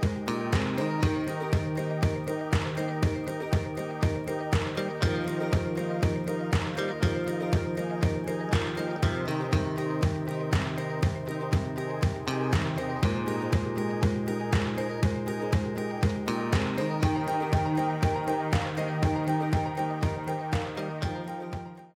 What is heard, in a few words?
Message On Hold